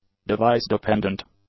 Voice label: "device-dependent", english; adjectives

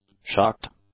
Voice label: "shocked", English; adjectives

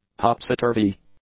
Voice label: "topsyturvy", English; adjectives

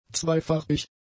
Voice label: "zweifarbig", German; adjectives

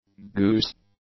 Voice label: "goose", English; nouns

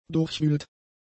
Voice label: "durchwühlt", german; adjectives

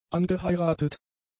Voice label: "angeheiratet", German; adjectives

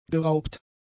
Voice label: "geraubt", German; adjectives

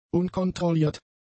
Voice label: "unkontrolliert", German; adjectives

